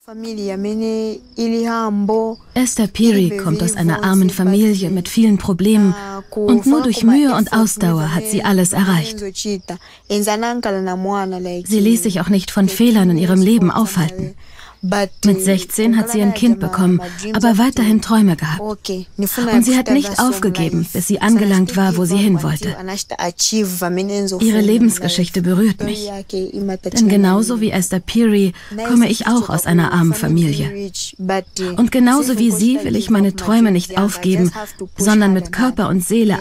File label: voice over; ring frei fur sambias boxerinnen; arte